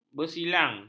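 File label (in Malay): "bersilang", Malay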